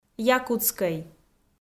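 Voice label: "якутскӧй", Komi, female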